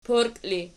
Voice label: "porki", Archi